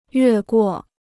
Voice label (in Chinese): "越过", Chinese